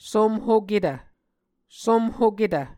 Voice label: "somhoketv", Muscogee